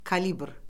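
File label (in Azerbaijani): "kalibr", Azerbaijani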